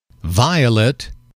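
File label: "violet", English, male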